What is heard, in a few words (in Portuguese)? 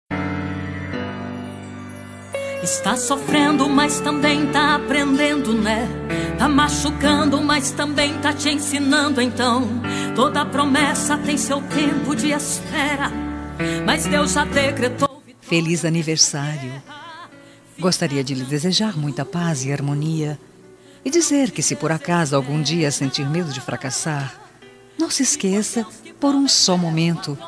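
birthday EVANGELICO female, telemensagem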